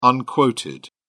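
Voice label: "unquoted", British English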